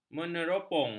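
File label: "meneropong", Malay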